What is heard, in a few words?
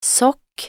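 "sokk", Norwegian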